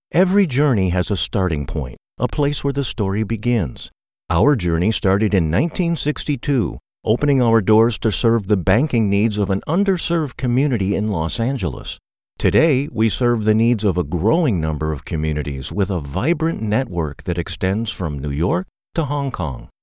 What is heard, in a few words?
RADIO TV BANKING